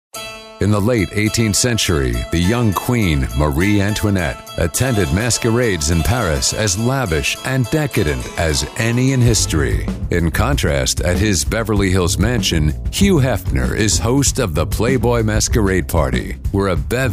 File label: documentary voice over